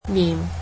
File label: cantonese face